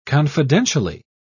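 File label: "confidentially", British English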